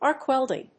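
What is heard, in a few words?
"arc welding", English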